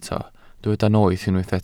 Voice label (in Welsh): "noeth" Welsh